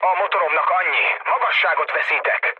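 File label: Hungarian damaged engine mood low, speech; War Thunder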